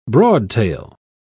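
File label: "broadtail", British English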